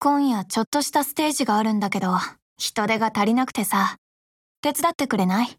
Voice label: VO groove DIALOGUE